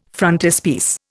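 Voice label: "frontispiece", Indian English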